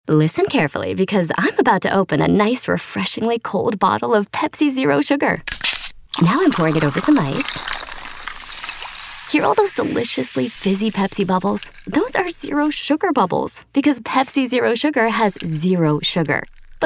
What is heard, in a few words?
Conversational